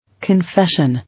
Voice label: "Confession", English